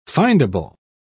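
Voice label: "findable", British English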